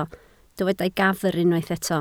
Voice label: "gafr", Welsh

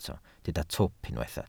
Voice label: "twp", Welsh

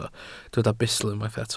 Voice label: "bustl", welsh

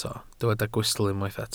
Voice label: "gwystl", Welsh

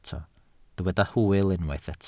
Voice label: "hwyl", Welsh

